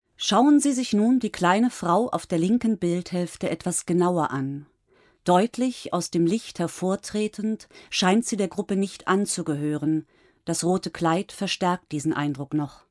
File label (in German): Audioguide